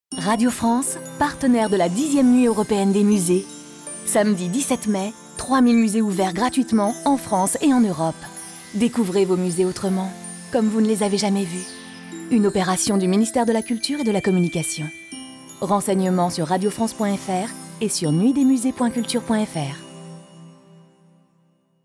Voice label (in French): Pub Radio, Publicité Radio; Nuit des Musees; Radio France